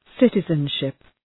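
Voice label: "citizenship", English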